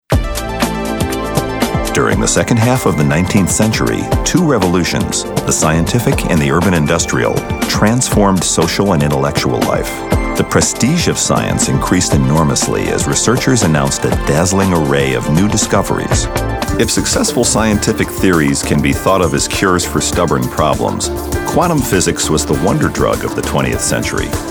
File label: Narrative